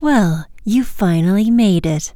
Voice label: vocal dialogue well you finally made it female human; rogue